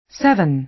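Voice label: "seven", American English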